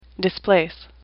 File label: "displace", English; AWL